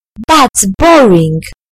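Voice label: "thats boring", British English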